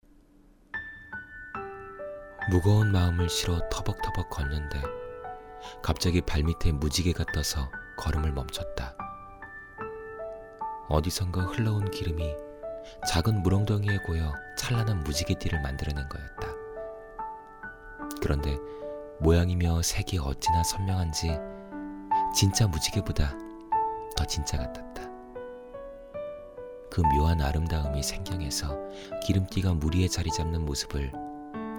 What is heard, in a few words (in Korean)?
내레이션 차분하고 감성적인 느낌